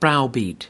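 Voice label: "browbeat", US English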